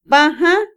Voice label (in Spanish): oler, Otomí, mujer; Percepción; INALI